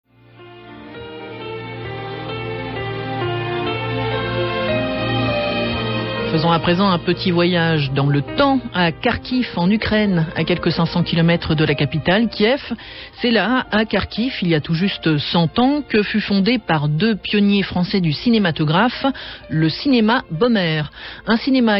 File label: accents europe